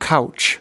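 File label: "couch", US English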